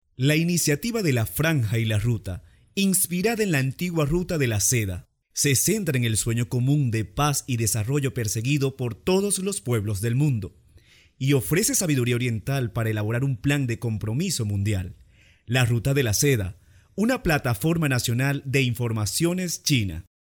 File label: locutor venezuela venezuelan voiceover; locutor de Venezuela